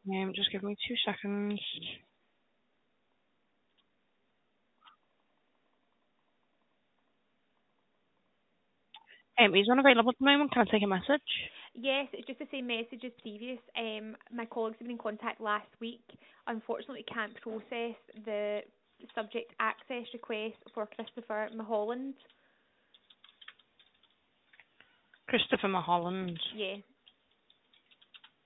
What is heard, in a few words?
call recording